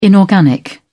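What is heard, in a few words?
"inorganic", British English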